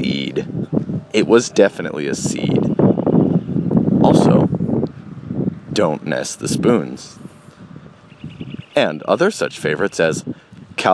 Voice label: voice of epic